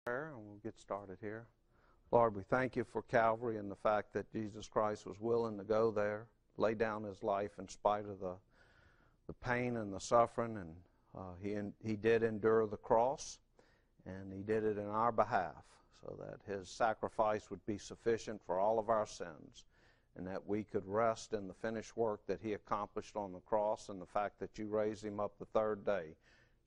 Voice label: Preaching